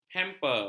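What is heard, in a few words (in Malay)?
"hamper", Malay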